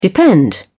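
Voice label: "depend", British English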